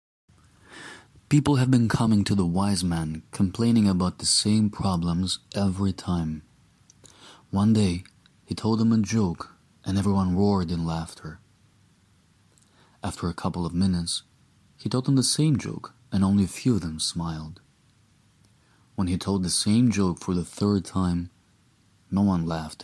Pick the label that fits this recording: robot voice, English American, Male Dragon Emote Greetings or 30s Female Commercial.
English American